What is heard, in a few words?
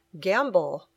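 "gamble", English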